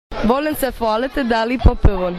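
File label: "fualiti se", Croatian dialect; hvaliti se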